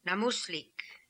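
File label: "Nammuslik", pronunciations; Fourth Way; Beelzebub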